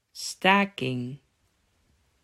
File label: "Staking", Dutch